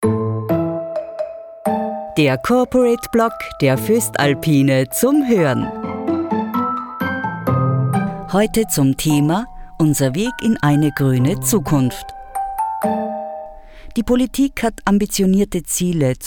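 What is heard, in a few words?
Austrian German, female, narration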